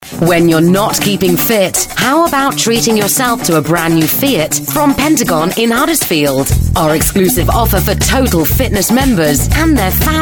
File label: commercial; huddersfield